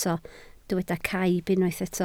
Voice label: "caib", Welsh